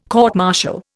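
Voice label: "court martial", Indian English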